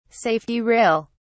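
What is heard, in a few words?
"safety rail", British English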